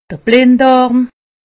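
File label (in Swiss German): "blinddarm", Haut Rhin; medecine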